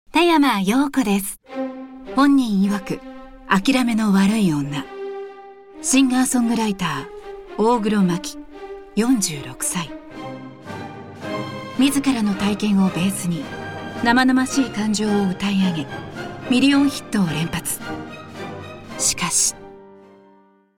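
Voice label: female documentary, English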